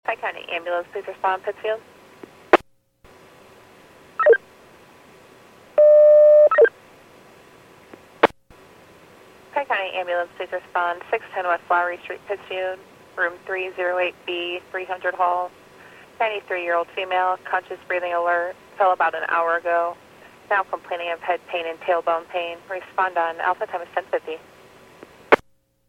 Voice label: PAGING